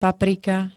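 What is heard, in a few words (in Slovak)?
"paprika", Slovak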